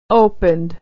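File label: "opened", American English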